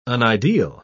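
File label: "unideal", British English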